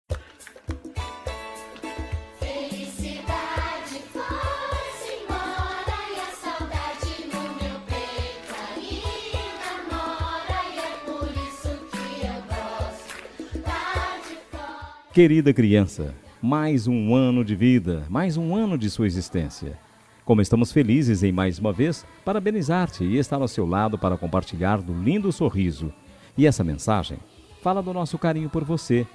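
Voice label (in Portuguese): ANIV CRIANCA male, Telemensagem Aniversário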